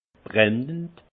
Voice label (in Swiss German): "brennend", alsace; vin; brûlant